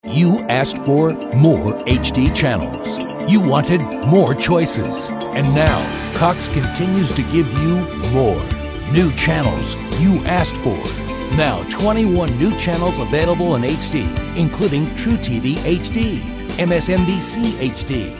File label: serious informative